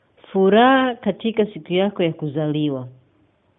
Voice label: birthday swahili

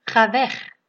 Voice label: go away, dutch

